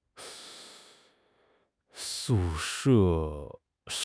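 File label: "su she" Chinese; 汉语口语新时代-初级下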